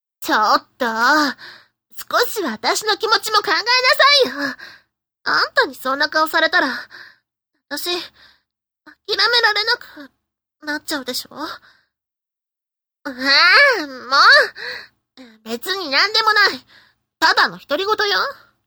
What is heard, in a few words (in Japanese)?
f tundere; seikaku